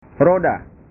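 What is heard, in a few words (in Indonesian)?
"roda", Indonesian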